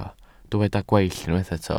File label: "gweill", Welsh